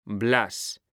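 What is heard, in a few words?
"blas", Spanish, male; mekanta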